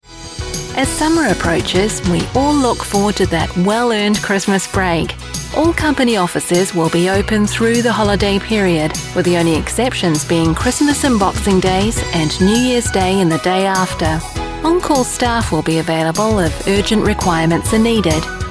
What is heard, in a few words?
distribution wholesale messages on hold